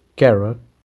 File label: Carrot, girl